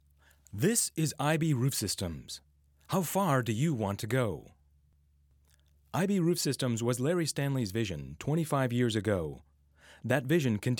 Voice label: Corporate Sales Presentation, Voice Over Narration; narrator; IB Roof Systems Corporate Video Sales Presentation; company: IB Roof Systems, Eugene, OR